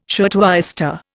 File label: "shirtwaister", Indian